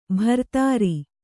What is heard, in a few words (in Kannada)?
"ಬಿರಾದರ", Kannada